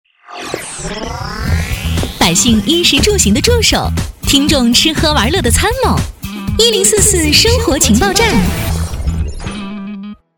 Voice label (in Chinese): 女 电台片花; 电台片花【生活情报站】